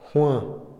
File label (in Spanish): pescado, Otomí, hombre; INALI